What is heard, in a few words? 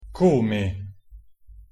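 "come", Italian pronunciation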